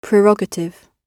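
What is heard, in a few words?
"prerogative", British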